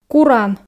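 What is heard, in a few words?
"куран", Komi, female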